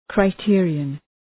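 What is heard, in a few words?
"criterion", English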